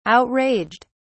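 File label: "outraged", British English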